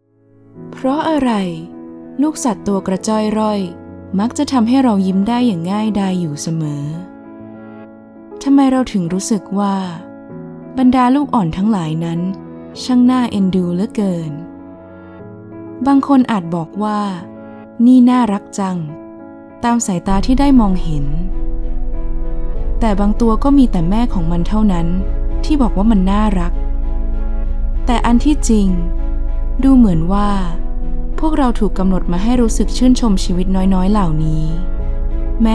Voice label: thai female docu

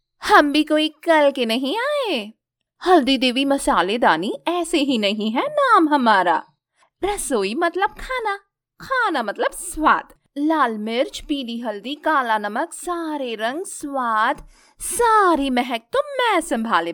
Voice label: HINDI Movie dialogue

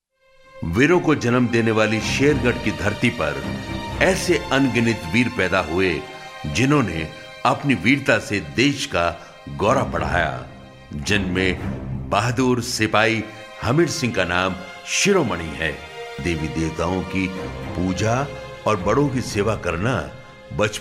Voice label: docu

